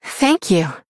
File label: Female Human Thanks; Jaina